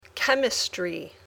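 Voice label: "chemistry", English word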